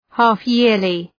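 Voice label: "half-yearly", English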